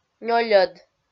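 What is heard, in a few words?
"нёльӧд" Komi-Zyrian, female; kpv audio dict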